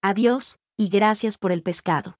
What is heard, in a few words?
so long Spanish